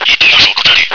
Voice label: position, radio